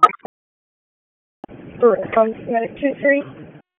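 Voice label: EMS Dispatch, p25; wake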